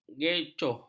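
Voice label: "gocoh", Malay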